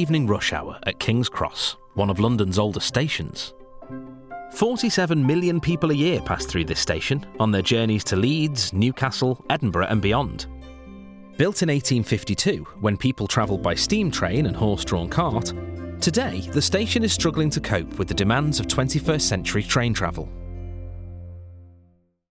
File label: male english natural wirral accent railway documentary; Devine Voices